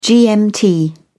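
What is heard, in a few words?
"gmt", British English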